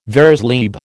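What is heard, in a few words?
"vers libre", American English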